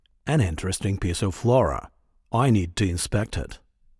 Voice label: Voice Monolog